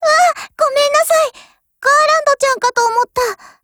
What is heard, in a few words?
DIALOGUE JP